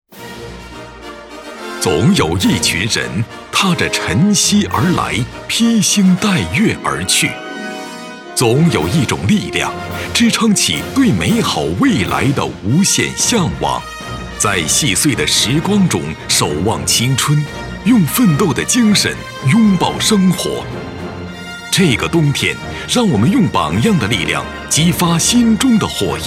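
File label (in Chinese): 年会颁奖词激情力度; 榜样的力量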